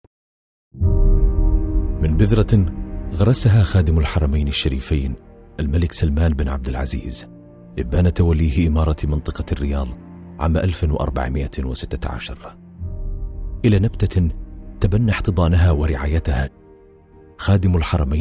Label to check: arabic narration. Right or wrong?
Right